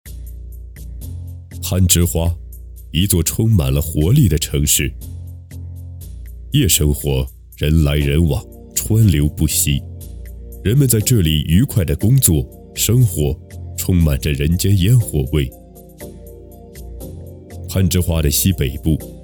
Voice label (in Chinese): 纪录片傈僳族; 日与夜